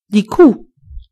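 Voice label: "cow", German; gcompris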